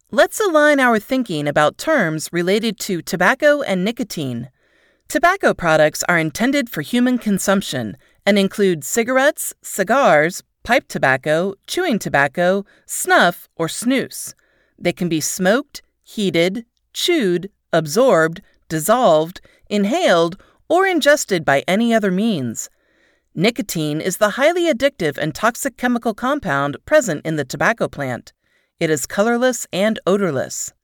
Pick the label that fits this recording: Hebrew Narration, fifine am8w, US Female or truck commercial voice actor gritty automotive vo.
US Female